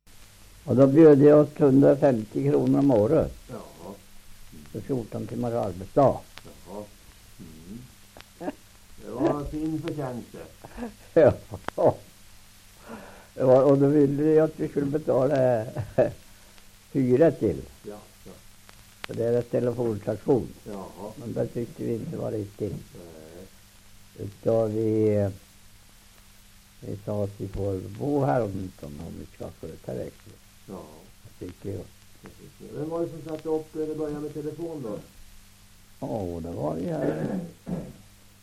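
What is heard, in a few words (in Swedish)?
Hölö Södermanland, dialekter; Folkminnen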